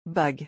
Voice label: "bague", French